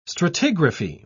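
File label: "stratigraphy", British English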